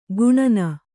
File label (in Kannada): "ಗಣನ", kannada